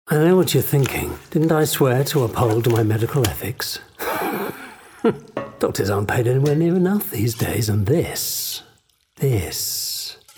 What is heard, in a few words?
Gaming Victorian Doctor